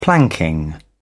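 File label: "planking", British English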